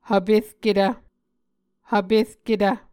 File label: "hvperketv", Muscogee